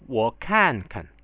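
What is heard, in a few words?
"wokankan", Chinese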